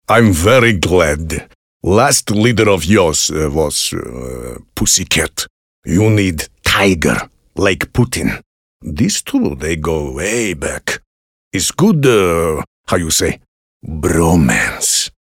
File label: English Russian accent